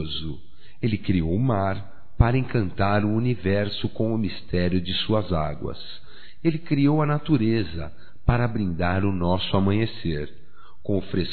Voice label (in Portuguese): FILHA, masc; Amizades; Telemensagens 2017